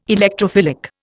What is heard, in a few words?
"Electrophilic", Indian